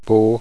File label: gaelic, vowels